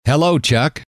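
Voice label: hello, male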